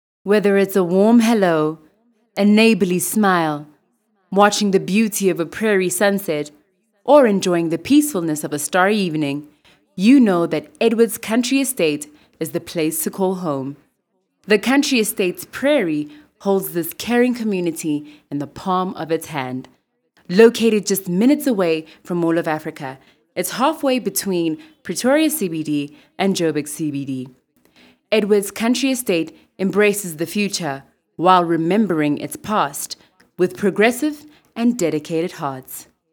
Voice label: Conversational Soft Sell